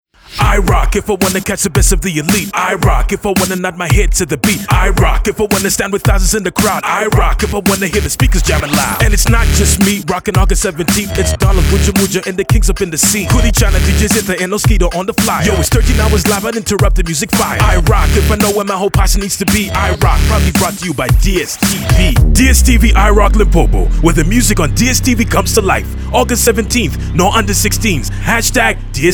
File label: Rap, radio ads; DStv; iRock